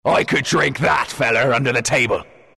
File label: announcer pick brewmaster, pirate; dlc workshop; capn